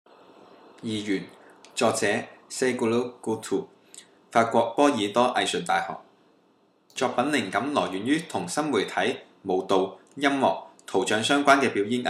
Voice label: Cantonese; Duali